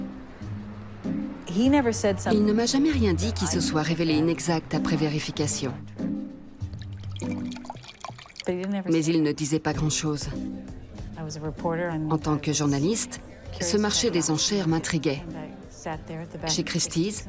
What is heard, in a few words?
Voice Over; Raisins amers; Arte